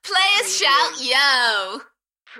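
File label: UK female, Enthusiastic, DJ vocals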